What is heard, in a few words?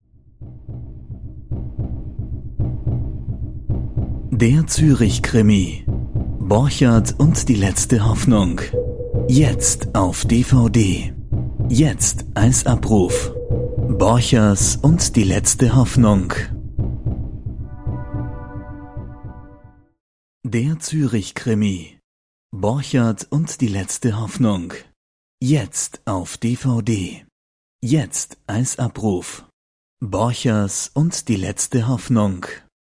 GERMAN